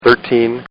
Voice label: Man Says, Talking; Voice

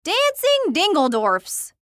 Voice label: Cartoon Voice Female; Cartoon Voices

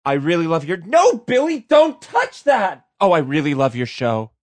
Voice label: Billy Dont Touch, Radio, Male

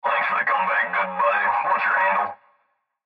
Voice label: Cb Trucker Radio; Cb Radio Male Thanks For The Comeback Good Buddy Whats Your Handle; Radio Voice; Blastwave

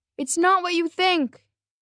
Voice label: Its Not What You, 1 child, 10 yrs; Talking Sound Effects; Sound Effects:Voice; VoiceBox

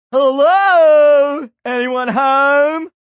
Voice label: Hello Anyone Home, Male; Greetings And Goodbye; VoiceBox

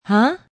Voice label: "Huh" Question, female; Response

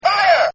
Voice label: Man Yells Fire; Talking; Partners In Rhyme

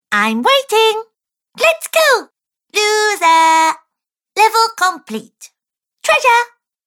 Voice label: Cartoon Girl Variety Pack, Cartoon Voice

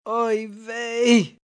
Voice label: "Oy Vey", Reaction, negative, male, Jewish; Exclamation; VoiceBox

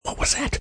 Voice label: What Was That, Questions, male; Talking Sound Effects

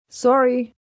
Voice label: Sorry, female; Etiquette

